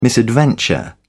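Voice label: "misadventure", British English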